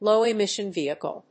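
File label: "Low-Emission Vehicle", English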